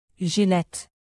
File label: "jilet", tr native; alphabet